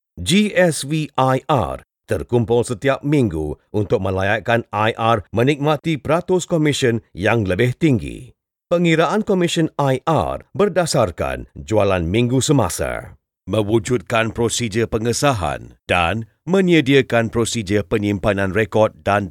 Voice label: Malay male; Foreign language voice over